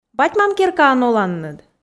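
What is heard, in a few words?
"бать-мам керкаын оланныд", Komi, female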